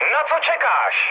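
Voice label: attack position, Czech, mood high; speech; War Thunder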